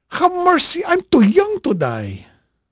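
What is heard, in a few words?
Portuguese male, voice; Pirates Of The Caribbean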